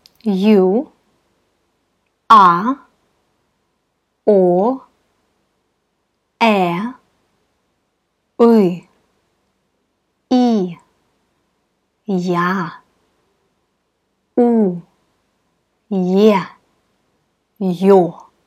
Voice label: dictation vowel sounds